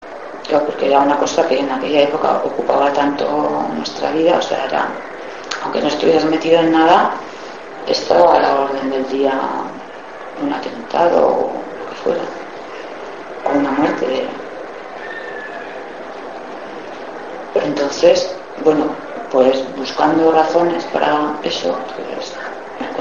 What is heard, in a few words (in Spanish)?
Entrevista